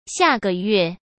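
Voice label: "下个月", Mandarin Chinese; chinese-flashcards; hsk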